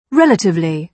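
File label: "relatively", British English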